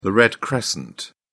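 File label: "red crescent", British English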